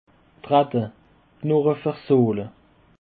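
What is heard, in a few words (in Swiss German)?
"drette", Alsatian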